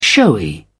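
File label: "showy", British English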